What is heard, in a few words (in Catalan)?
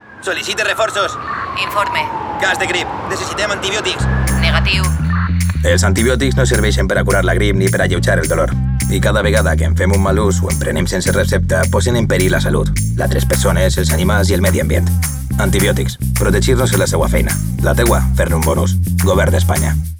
CUÑA VALENCIANO